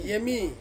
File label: "emi", Ansus; to dream